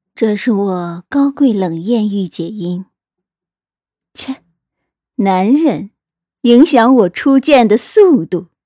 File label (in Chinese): 冷艳御姐音